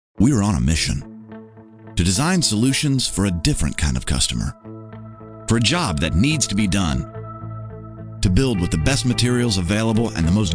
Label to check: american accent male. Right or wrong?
Right